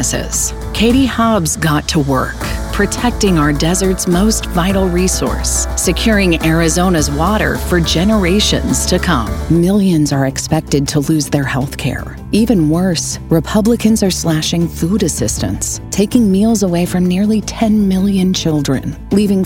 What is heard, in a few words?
english american political ads